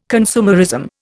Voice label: "consumerism", Indian